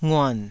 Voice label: "nguan3", Taiwanese